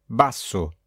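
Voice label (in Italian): "basso" Italian; niski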